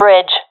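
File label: location bridge, professional female; vo; Counter Strike Model Pack; CS:GO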